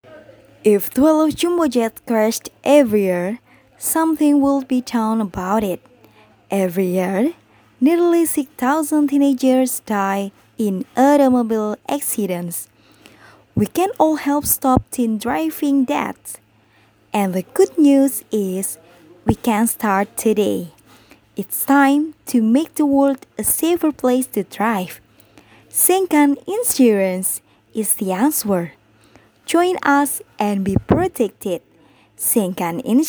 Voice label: Formal English, voiceover